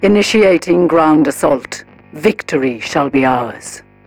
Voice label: advisor notification invasion progress, militarist; Stellaris; ethics